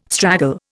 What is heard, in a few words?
"straggle", Indian